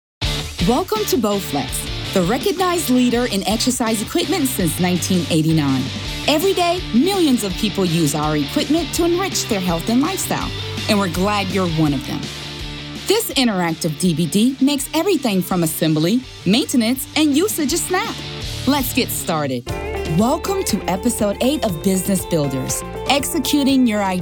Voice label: Narration